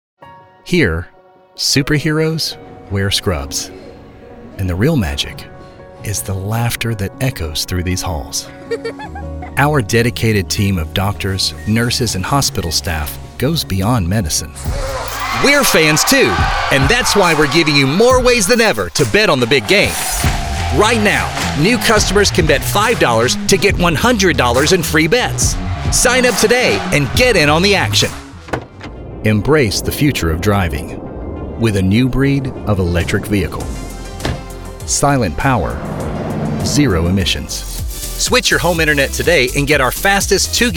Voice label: Commercial